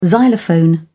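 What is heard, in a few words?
"xylophone", British English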